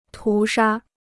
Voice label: "屠杀", Chinese

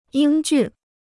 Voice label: "英俊", Chinese

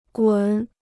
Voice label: "滚", Chinese

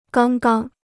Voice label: "刚刚", Chinese

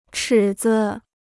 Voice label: "尺子", Chinese

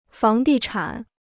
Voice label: "房地产", Chinese

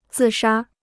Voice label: "自杀", Chinese

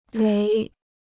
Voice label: "雷", Chinese

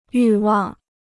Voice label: "欲望", Chinese

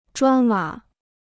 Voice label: "砖瓦", Chinese

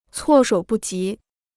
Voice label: "措手不及", Chinese

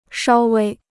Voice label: "稍微", Chinese

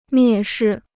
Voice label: "蔑视", Chinese

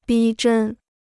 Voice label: "逼真", Chinese

